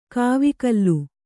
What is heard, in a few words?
"ಕಾವಕೂಲ", Kannada